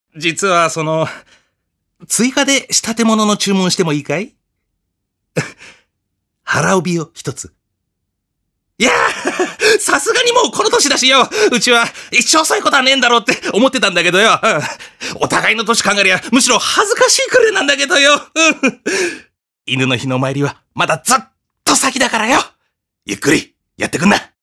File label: male voice; セリフ